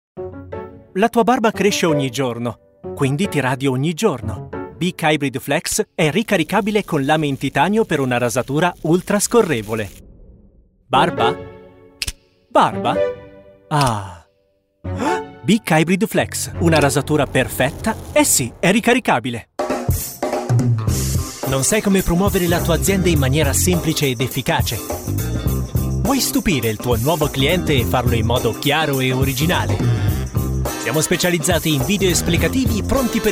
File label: Italian; Voiceover